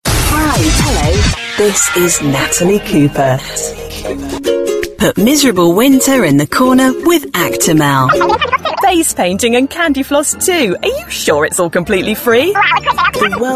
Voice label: commercial

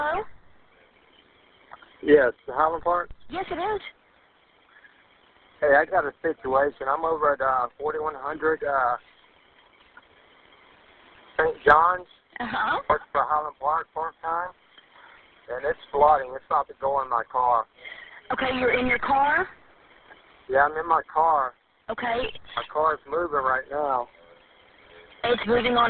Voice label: dispatch call

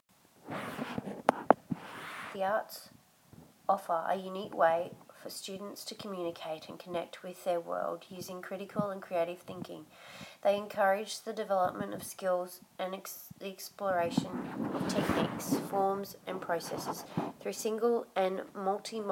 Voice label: audio recording for elearning; assignent for eLearning student dance

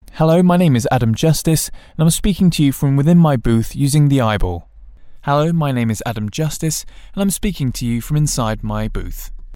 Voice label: voice over comparison

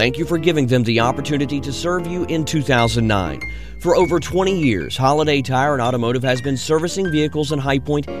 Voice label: radio commercial Christmas greeting; Holiday Tire

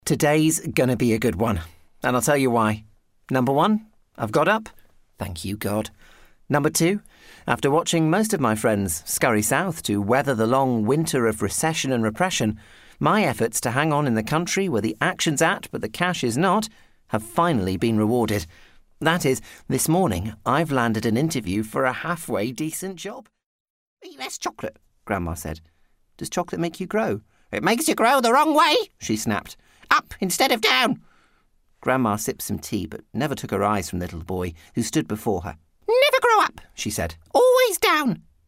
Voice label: drama voicereel

